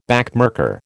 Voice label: "backmarker", American